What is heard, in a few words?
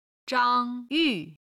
"zhang1 yu4", chinese